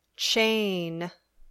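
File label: "chain", English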